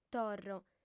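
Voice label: "torro", Sardinian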